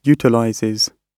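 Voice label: "utilizes", British English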